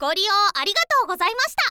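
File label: "goriyou arigatou", Japanese, girl; voice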